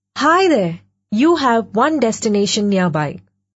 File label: one, female